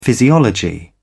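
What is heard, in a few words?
"physiology", British English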